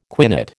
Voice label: "quinate", American

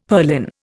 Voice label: "purlin", Indian; Dictionary

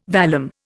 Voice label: "vallum", Indian

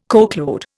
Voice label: "coachload", Indian

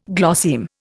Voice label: "glosseme", Indian

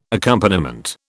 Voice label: "accompaniment", British

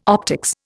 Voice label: "optics", Indian

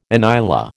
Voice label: "inyala", American

